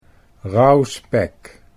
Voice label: "rauw spek", Dutch